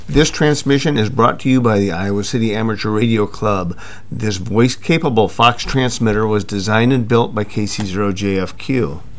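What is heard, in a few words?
voice fox announce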